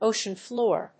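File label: "ocean floor", English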